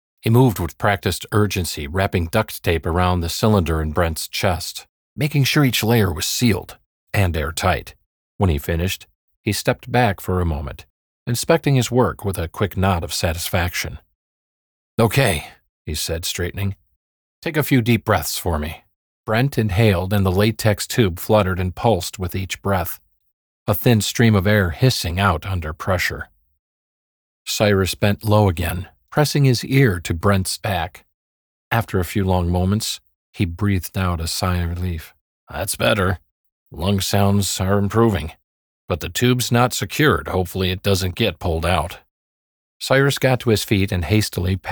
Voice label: Medical Thriller audiobook; voiceover artist